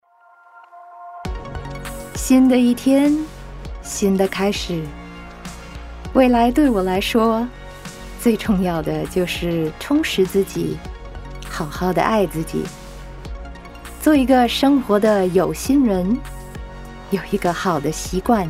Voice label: Mandarin